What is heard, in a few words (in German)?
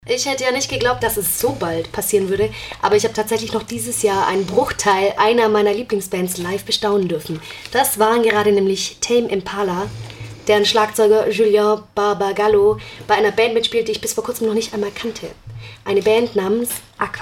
Anmoderation; Schorndorfer Konzert